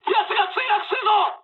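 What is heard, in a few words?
Japanese aircraft destroyed mood high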